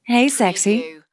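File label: Female Says Hey Sexy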